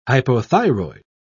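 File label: "hypothyroid", British English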